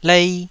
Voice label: "lei2", Taiwanese